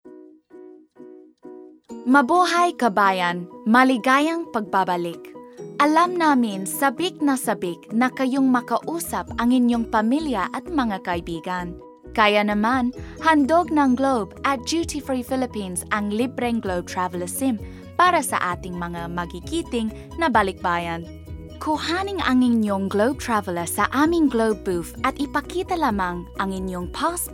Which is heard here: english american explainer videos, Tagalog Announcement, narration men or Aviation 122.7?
Tagalog Announcement